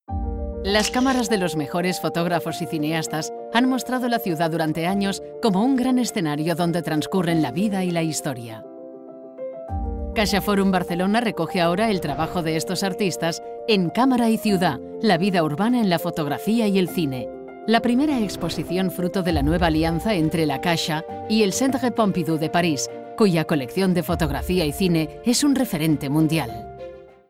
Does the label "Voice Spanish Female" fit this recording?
Yes